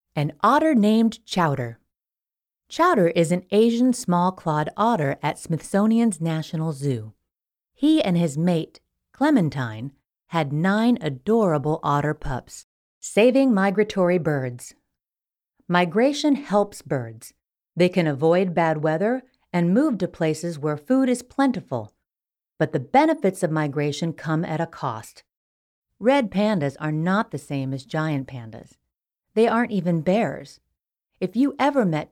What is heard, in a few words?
english american training e-learning